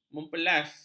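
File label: "mempelas", Malay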